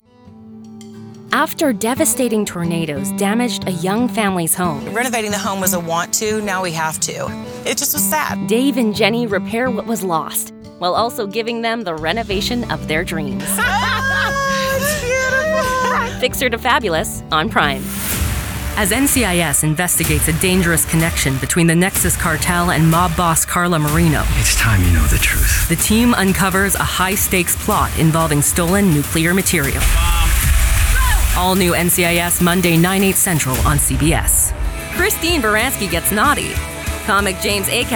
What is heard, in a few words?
Promo